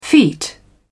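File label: "feet", British English, dictionary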